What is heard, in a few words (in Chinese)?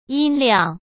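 "音量", Mandarin Chinese; chinese-flashcards; hsk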